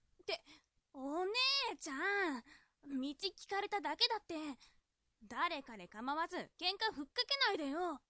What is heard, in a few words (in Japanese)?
josei